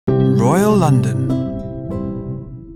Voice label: Subdued Fast Mnemonic Spoken Male; Royal London